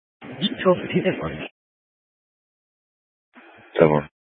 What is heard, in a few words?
Scottsdale Police, Simulcast